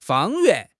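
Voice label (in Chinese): "方圆", Mandarin Chinese; HSK6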